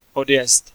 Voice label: Depart, Czech male